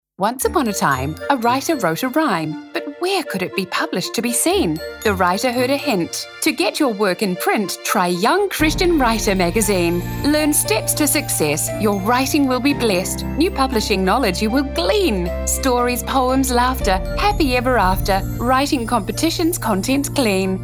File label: Radio Ad